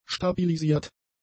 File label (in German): "stabilisiert", German; adjectives